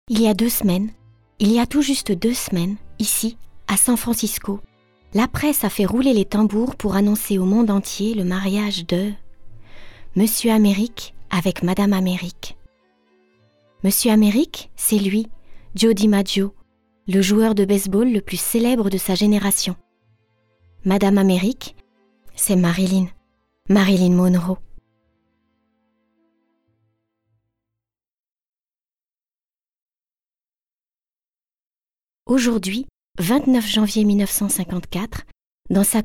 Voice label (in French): COMMENTAIRE SUR IMAGES voix naturelle; MYSTERES D ARCHIVES de Serge VIALLET